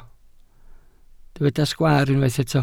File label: "sgwaar", Welsh